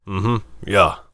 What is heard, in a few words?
warrior conversation emotion agree